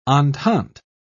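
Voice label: "entente", British English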